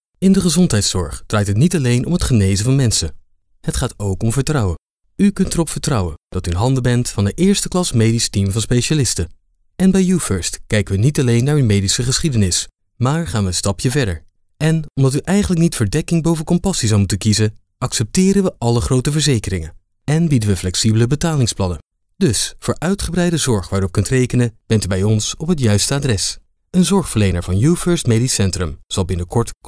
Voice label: Sincere